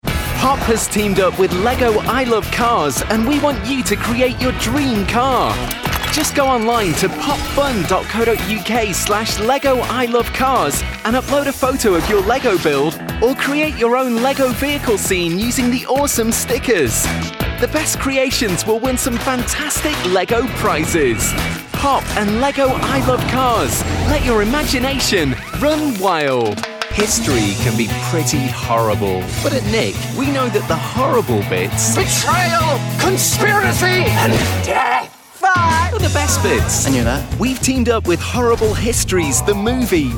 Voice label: Kids TV Commercials; professional English voice talent with a neutral or northern UK accent